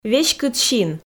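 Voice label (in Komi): веськыд син, Komi, female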